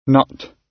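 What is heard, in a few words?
"nut", British English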